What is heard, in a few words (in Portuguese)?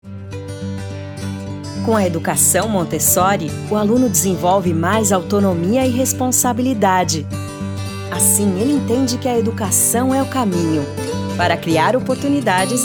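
Maternal Português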